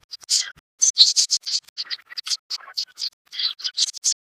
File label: distant whispers fading i; atmosphere